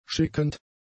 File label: "schickend", German; adjectives